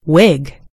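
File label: "wig", American English; gcompris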